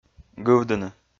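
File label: "гывдыны", Komi-Zyrian, male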